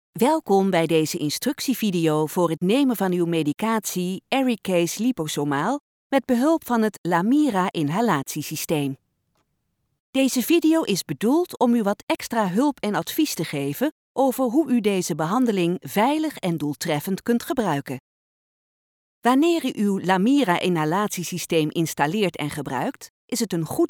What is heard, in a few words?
Example elearning; ARIKAYCE